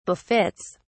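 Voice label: "befits", en, uk British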